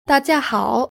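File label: "da jia hao" Chinese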